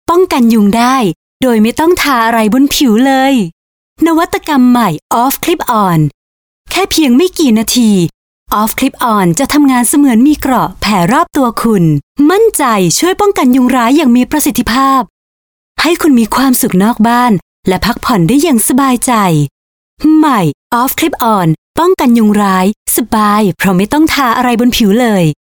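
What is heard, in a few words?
Thai female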